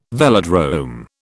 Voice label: "velodrome", British